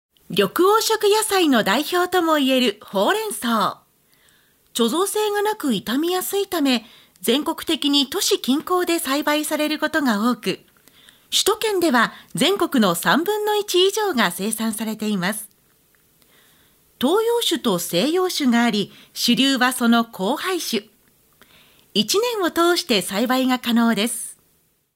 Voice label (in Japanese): フリートーク